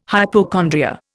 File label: "hypochondria", Indian